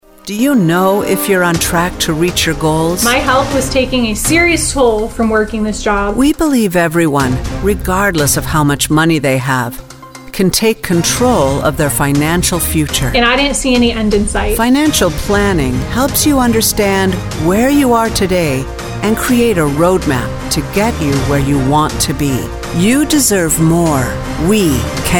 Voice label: Financial Confident Strong Trustworthy mid tone, Commercial Voiceover